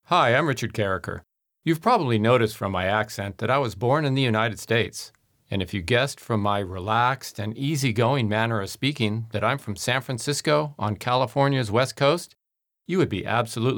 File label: foreigner